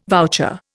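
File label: "voucher", Indian English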